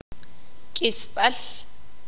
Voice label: sakapulteko